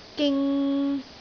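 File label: "ging3", Cantonese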